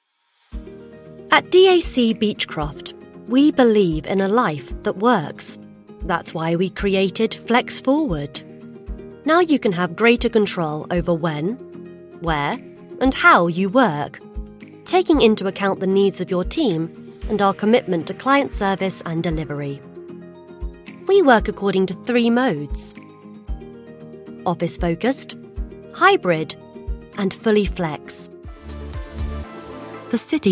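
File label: British English, Corporate; GoLocalise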